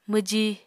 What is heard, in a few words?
"mwji", Apatani